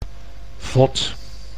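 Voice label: "vod", Dutch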